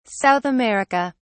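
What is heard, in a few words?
"South America", British English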